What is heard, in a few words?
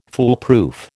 "foolproof", American